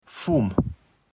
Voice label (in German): "Fumm", platt